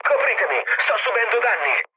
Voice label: aircraft damaged, mood low, speech it

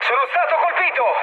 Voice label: aircraft damaged, mood high, speech it

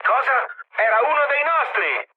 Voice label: player killed ally, mood med, speech it

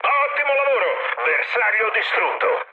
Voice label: bomb success, mood high, speech it; War Thunder

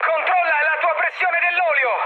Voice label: damaged oil, mood high, speech it; War Thunder

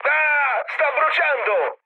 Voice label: on fire, mood low, speech it; War Thunder

